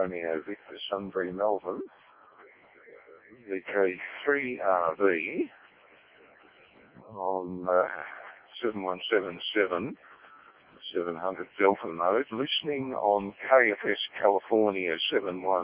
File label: decoded speech, parrot